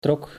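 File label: "trok", Dutch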